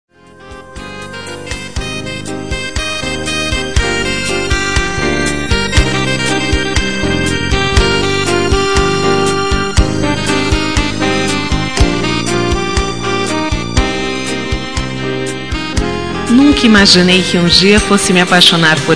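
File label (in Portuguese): ROMANTIC AMOR VIRTUAL FEM, Telemensagens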